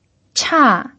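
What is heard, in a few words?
"cha", pinyin, fourth tone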